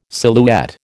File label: "silhouette", American